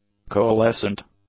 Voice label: "coalescent", English; adjectives